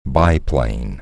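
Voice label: "biplane", spoken word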